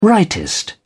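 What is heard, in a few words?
"rightist", British English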